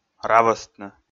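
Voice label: "равӧстны", Komi, male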